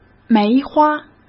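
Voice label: "梅花", Mandarin Chinese; chinese-flashcards; hsk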